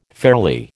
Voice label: "fairly", American